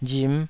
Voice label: "rim5", taiwanese

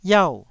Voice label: "iau4", Taiwanese syllable

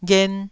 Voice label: "ghen1", Taiwanese syllable

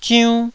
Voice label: "ziunn1", taiwanese

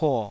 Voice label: "puo3", taiwanese

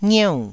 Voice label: "ngieu4", taiwanese syllables

